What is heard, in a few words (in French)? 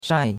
"shai4", chinois; Cours-de-Chinois